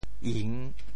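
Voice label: "ing3", Teochew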